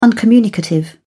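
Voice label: "uncommunicative", British English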